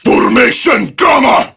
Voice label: Prime Grineer Male; Warframe; Tau; Battle